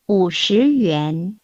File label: Mandarin Conversation